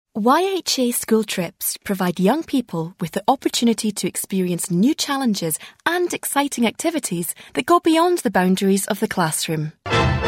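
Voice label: corporate, female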